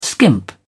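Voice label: "skimp", British English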